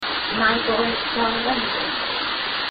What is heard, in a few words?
probable evp female